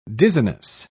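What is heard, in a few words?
"dizziness", British English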